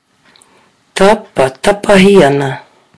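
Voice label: "tapatapahi ana", Māori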